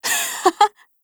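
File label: Japanese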